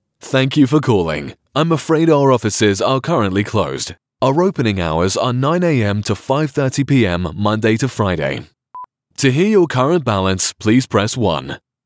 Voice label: english british ivr